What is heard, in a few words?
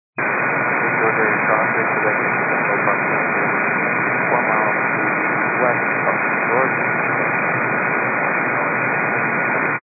122 925MHz, scanner radio